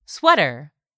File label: "sweater", English; 3000 words